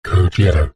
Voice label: science fiction computer console voice robotic male says code yellow